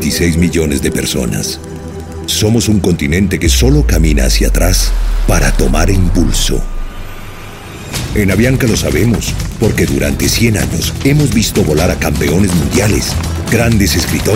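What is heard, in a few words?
Latin American Spanish, Commercial; GoLocalise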